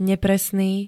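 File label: "nepresný", Slovak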